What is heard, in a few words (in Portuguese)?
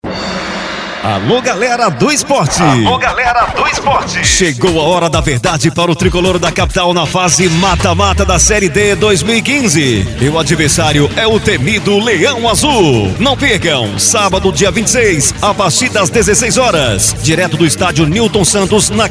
CHAMADA PALMAS E REMO DO PARÁ